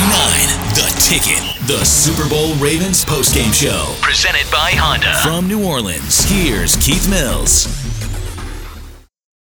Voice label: radio sports; radio imaging